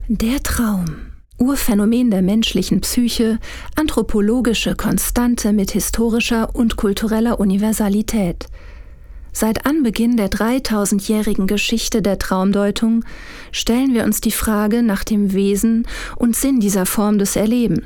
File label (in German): Doku; Traumwelten